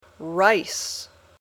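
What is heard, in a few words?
"rice", English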